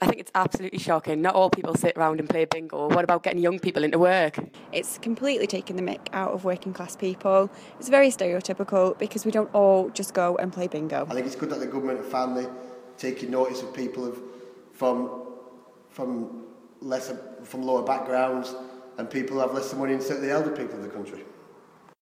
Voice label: bingo vox pops